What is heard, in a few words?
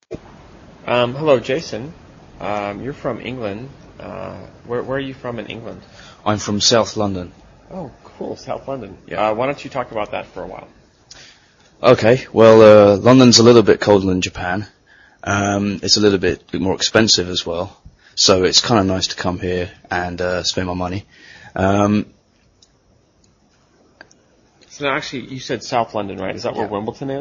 Accents